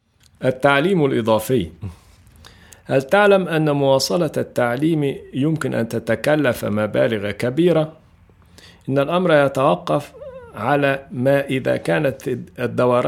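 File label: Arabic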